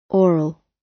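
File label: "oral" British English; Time, history; Thời gian, lịch sử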